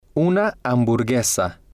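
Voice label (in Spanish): una hamburguesa, Spanish, male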